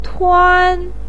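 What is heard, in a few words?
"tuan", Chinese pinyin, first tone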